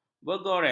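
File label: "bergores", Malay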